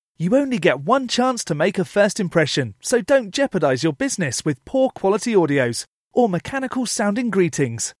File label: male voice